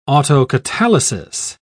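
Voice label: "autocatalysis", British English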